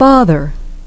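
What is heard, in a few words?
"father", English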